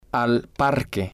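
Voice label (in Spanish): al Parque, spanish, male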